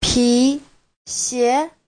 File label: "pi2xie2", chinese